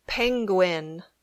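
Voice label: "penguin", English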